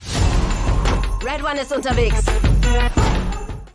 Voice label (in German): Trailer Funkstimme Stimmprobe; Synchron - Realfilm - tough, routiniert; Scene, Lip-Sync (Synchron), Off; Red One